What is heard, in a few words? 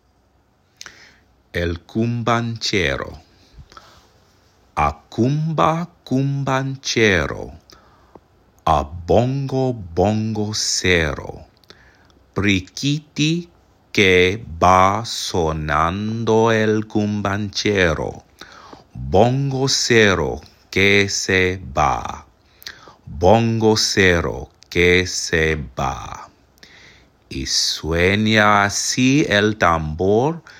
pronunciation CHORUS